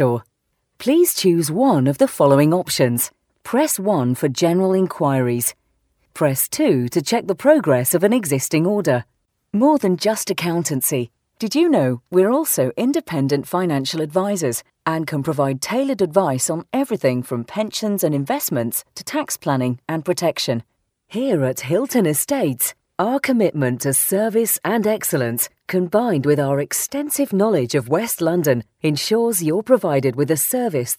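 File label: British on hold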